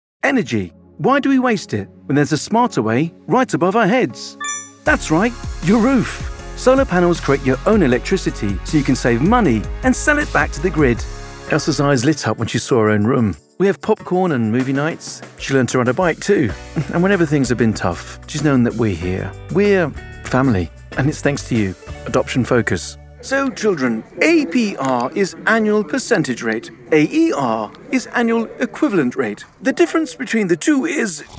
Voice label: Commercial Showreel

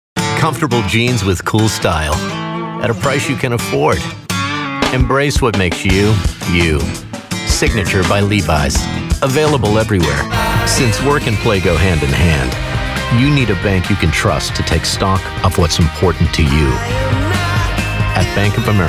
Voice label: Commercials

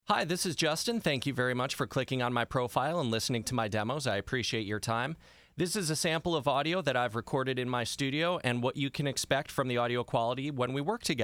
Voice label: studio sample

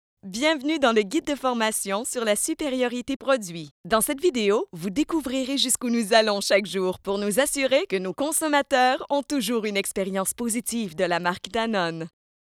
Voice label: On line course

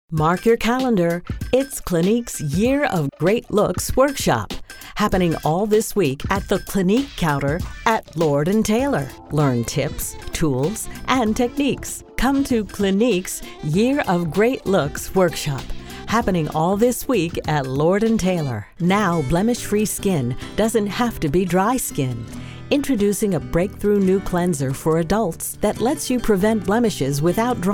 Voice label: Radio

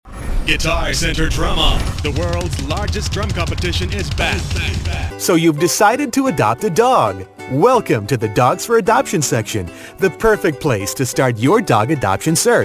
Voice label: High Energy

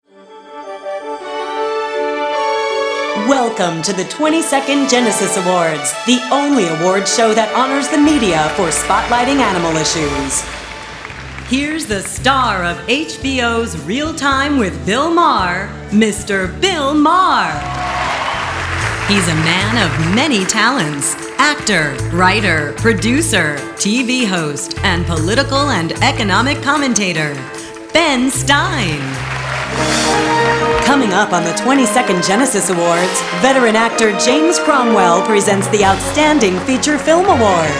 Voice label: LIVE ANNOUNCE

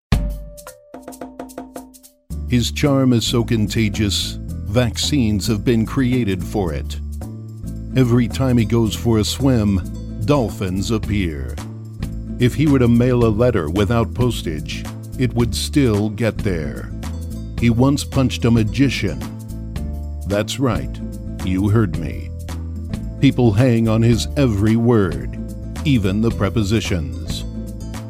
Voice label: Parody; Most Interesting Man

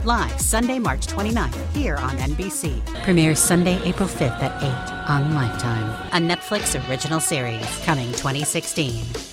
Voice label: Radio TV Imaging

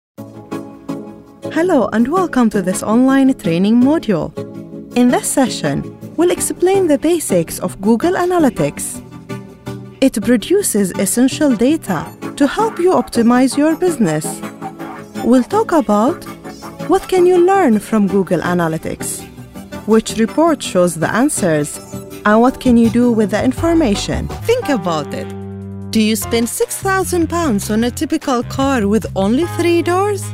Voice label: English Reel

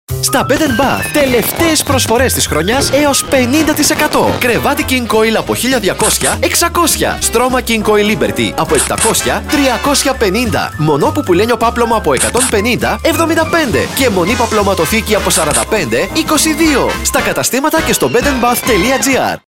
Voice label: TVC

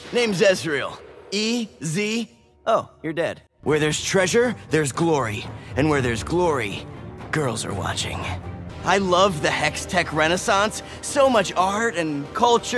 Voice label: Video Game Reel

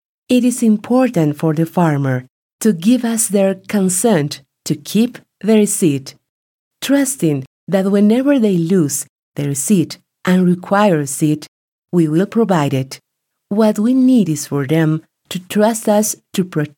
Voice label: Foreign Language Videos Explainer English

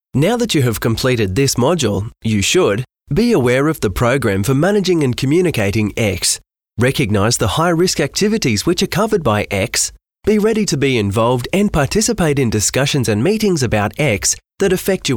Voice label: e-learning narration

